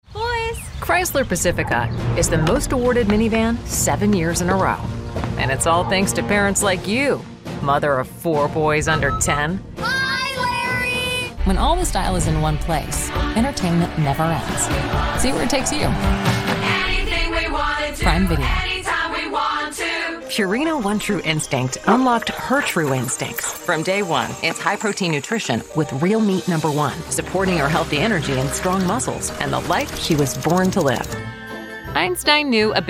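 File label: Commercial, Female Voice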